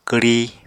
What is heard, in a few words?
"kwri", Apatani